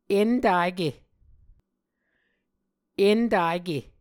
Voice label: "entake", muscogee